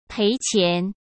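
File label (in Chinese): "赔钱", Mandarin Chinese; hsk; chinese-flashcards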